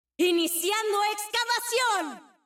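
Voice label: Latin American Spanish; 守望先锋2; 终极技能; 主动/敌方释放语音; ¡Iniciando excavación!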